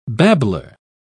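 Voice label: "babbler", British English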